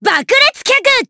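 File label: Vox Skill, Japanese; Cecilia; kingsraid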